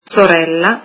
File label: sister, italian